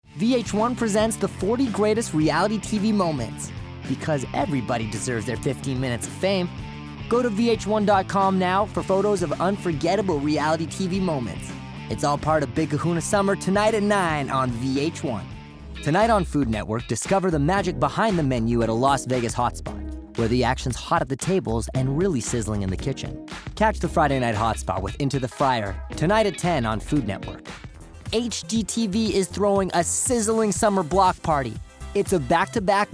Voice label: Promos